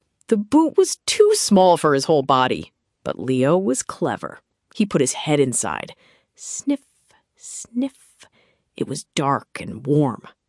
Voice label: narration; o gato no sapato; historia en A2